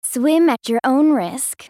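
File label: taunt, English; Nami; Character Voices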